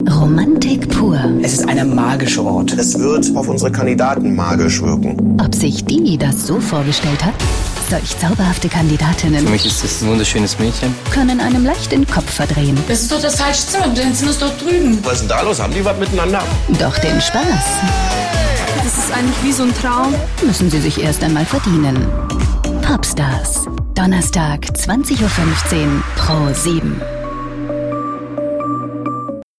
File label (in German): Trailer, Sprecherin; Popstars; Pro7